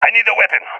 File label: request weapon, balkan; balkan epic